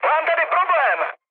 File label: aircraft damaged, speech cze, mood high